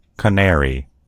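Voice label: "canary", American English; gcompris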